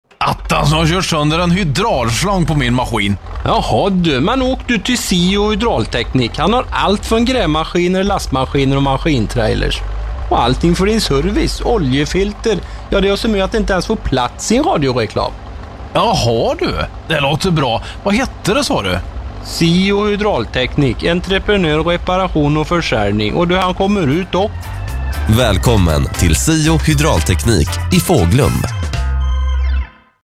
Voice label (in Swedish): reklam